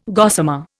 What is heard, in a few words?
"gossamer", Indian